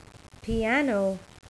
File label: "piano", Dictionary; Call Centers